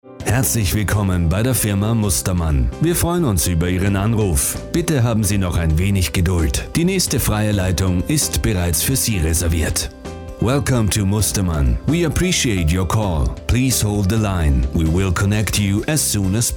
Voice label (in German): Telefonansage